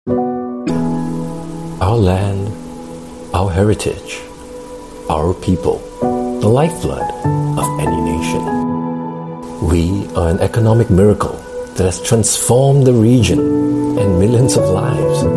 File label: Asian English Singapore